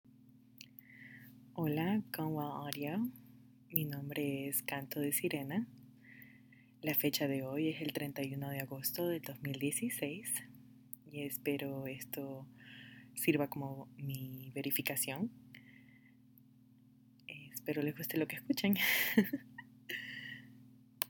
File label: Verification in Spanish